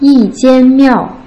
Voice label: "yi1 jian1 miao4", Putonghua